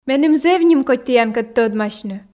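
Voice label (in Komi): меным зэв нимкодь тіянкӧд тӧдмасьны, Komi, female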